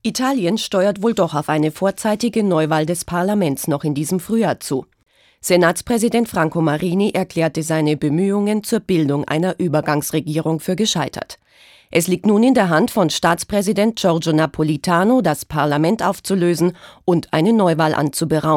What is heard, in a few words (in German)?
Nachrichten